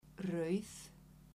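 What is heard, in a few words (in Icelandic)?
"rauð", Icelandic, female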